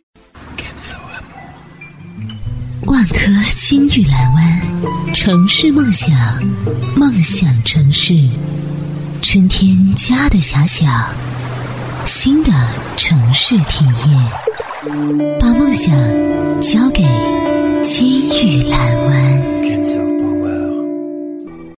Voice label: 国语女声配音，地产类; 优雅配音; 万科地产

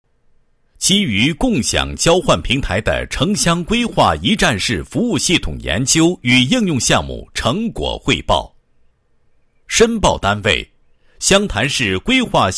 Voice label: 男 工作汇报; 服务系统研究与应用项目成果汇报

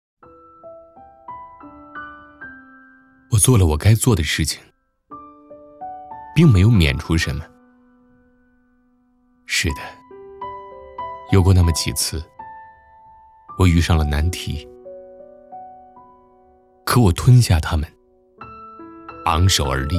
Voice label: 男 旁白 叙述性年轻磁性 成熟知性

